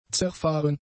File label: "zerfahren", German; adjectives